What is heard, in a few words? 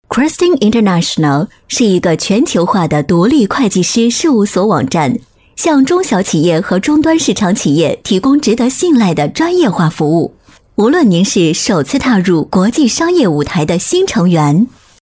Chinese Mandarin